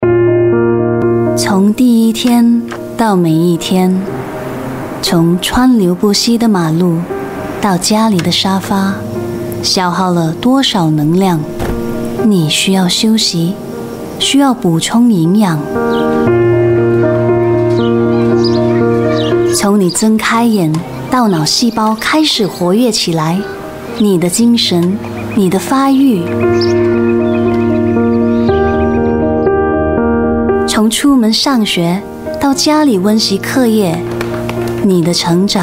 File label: chinese voice over female narrator